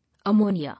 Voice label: "Ammonia", English

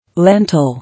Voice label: "Lentil", English

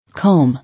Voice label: "Comb", English

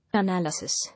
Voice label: "Analysis", English

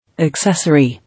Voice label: "Accessory", English

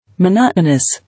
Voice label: "Monotonous", English

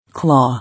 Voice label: "Claw", English

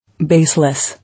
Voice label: "Baseless", English

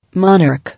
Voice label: "Monarch", English

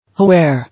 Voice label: "Aware", English

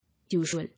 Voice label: "Usual", English

